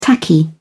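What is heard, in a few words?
"tacky", British English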